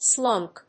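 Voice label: "slunk", English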